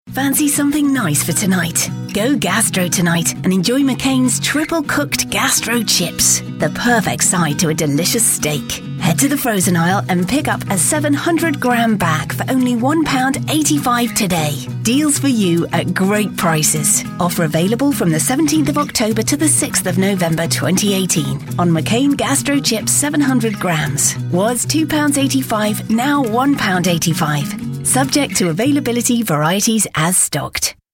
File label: system announcements